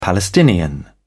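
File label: "palestinian", British English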